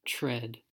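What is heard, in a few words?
"tread", US English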